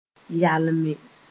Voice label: "yalme", Moore